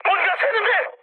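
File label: Korean tank damaged mood high; War Thunder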